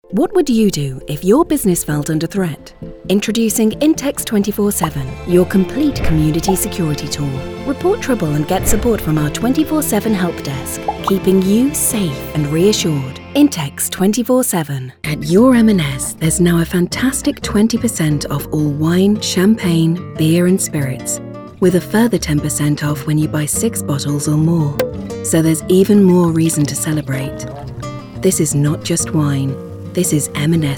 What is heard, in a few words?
Commercial Reel